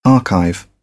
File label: "archive", British; English